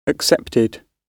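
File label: "accepted", British English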